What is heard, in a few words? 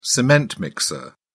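"cement mixer", British English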